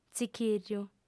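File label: "tzichìrriu", lingua sarda, female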